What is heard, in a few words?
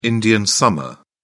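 "indian summer", British English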